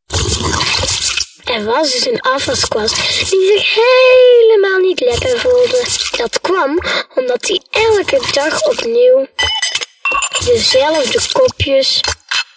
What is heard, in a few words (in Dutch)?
Radio commercial; DUBRO